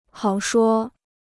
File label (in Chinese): "好说", Chinese